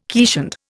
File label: "keeshond", Indian